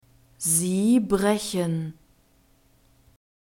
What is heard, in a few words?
"sie brechen", German; verbs present tense irregular